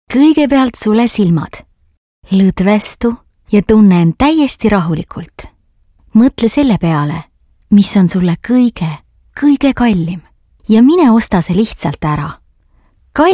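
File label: Estonian female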